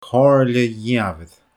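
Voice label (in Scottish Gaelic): "comhairle dhìomhair", Scottish Gaelic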